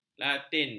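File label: "latin", Malay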